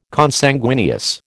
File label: "Consanguineous", American